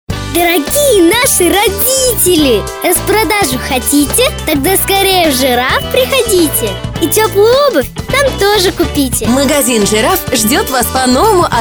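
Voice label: girl 7 years old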